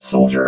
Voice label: "soldier", robot